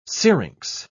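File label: "syrinx", British English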